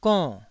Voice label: "gonn4", Taiwanese syllable